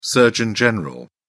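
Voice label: "surgeon general", British English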